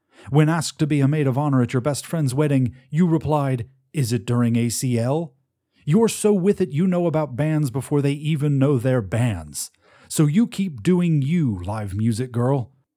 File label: Advert - quirky